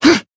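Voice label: Voice attack, Japanese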